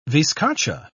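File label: "viscacha", English, British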